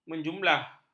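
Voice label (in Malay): "menjumlah", Malay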